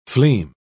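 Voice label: "fleam", British English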